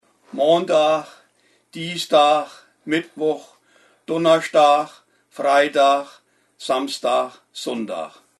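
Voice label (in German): wochentage, Hunsrück; Emmelshausen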